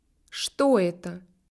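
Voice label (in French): phrase, Russian; phonetique et graphie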